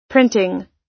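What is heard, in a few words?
"printing", US English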